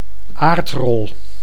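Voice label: "aardrol", Dutch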